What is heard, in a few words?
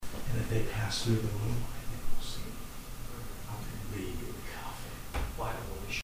Confident